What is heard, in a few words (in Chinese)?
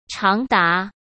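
"长达", Mandarin Chinese; chinese flashcards; hsk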